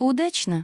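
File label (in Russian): "Удачно", Russian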